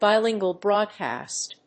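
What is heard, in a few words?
"bilingual broadcast", English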